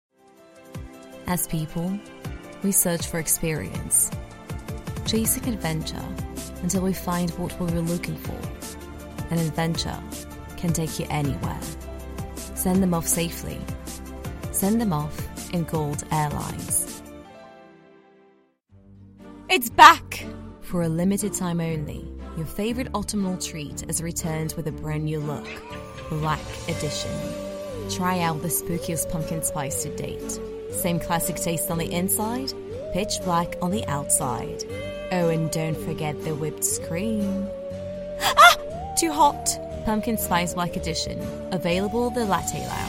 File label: eng commercial